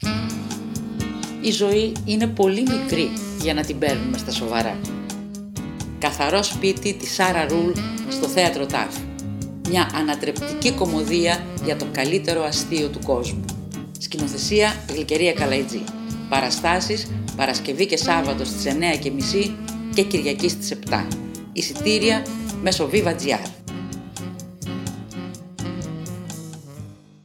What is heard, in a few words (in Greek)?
ΤΟ ΚΑΘΑΡΟ ΣΠΙΤΙ RADIO SPOT